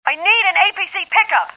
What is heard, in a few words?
female need pku